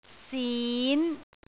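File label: "sin5", Cantonese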